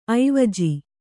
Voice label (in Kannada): "ಐವಜು", Kannada